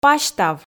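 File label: "пасьтав", Komi, female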